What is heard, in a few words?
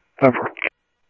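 police department, scanner audio; ThompsonPeakHigh; SCTSDLE PD - PD2; ScottsdalePolice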